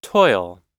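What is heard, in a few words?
"toil", US English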